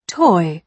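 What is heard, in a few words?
"toy", British English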